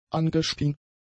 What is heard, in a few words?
"angespien", German; adjectives